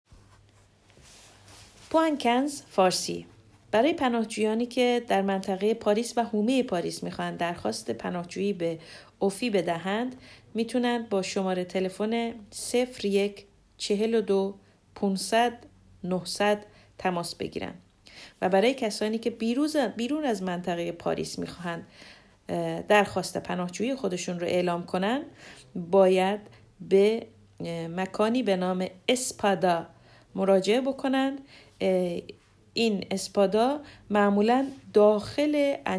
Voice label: farsi; spada